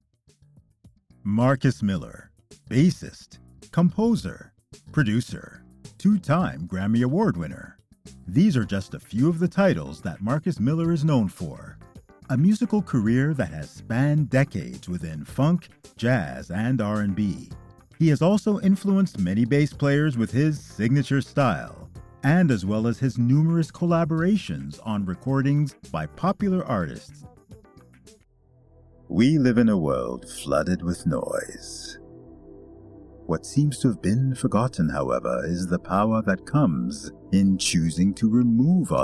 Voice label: commercial